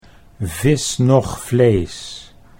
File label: "vis noch vlees", Dutch